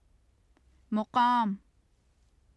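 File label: "maqam", Urdu; مقام; [məqɑːm] (maqām); 位置（男）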